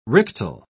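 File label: "rictal", British English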